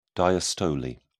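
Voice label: "diastole", British English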